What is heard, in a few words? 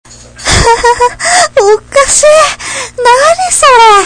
funny w